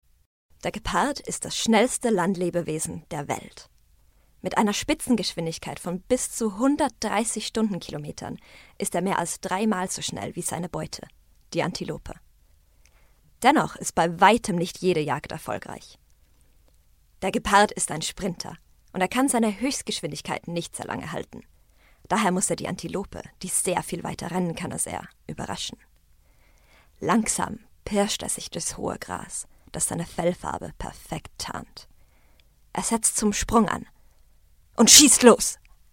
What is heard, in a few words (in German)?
Deutsch Dokumentation Geparden